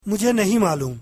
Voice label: I dont know, urdu

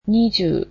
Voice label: "twenty", japanese

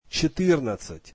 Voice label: russian fourteen

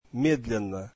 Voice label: russian slowly

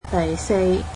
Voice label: "fourth", cantonese

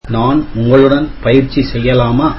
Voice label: tamil Can I practice with you

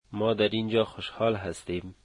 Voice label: we are happy here, Dari

